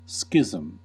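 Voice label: "schism", US English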